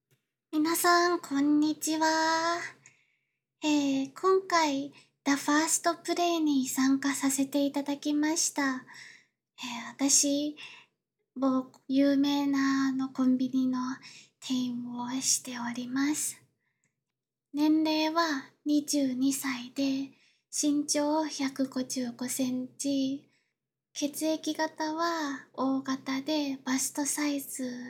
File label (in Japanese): 自己紹介, ASMR